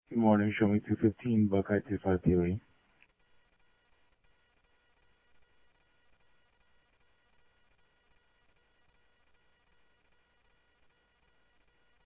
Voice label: scanner audio; Thompson Peak; MVD Enforce; ArizonaDepofTran

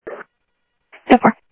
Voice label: Sheriff-WestCnty, scanner audio

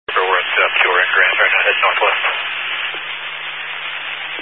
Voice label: Highway Patrol, Phoenix Metro West Area, scanner

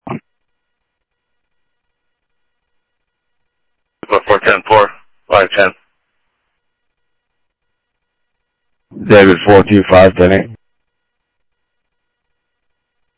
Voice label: scanner audio; Thompson Peak; MVD Enforce; ArizonaDepofTran

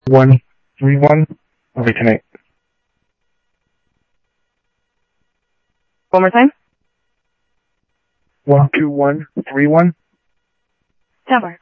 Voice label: Scottsdale Police, scanner audio

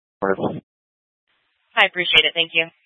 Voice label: Fire Departments Mesa Simulcast; TOPAZ

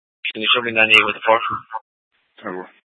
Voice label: PD Dispatch

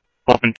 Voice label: police, scanner audio

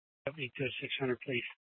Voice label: Superstition Dispatch, Mesa PD; 4 Superstition Dispatch

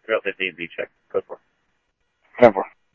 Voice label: Police, scanner audio; ThompsonPeakHigh

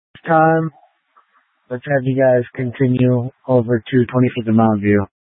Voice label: Light Rail Emergency, scanner; Valley Metro Public Transit System; Channel 6; Simulcast F- Tempe

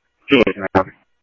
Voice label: Police, scanner; H-Scottsdale; ScottsdalePolice

